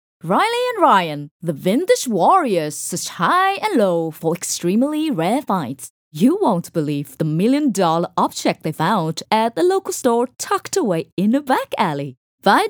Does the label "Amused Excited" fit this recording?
Yes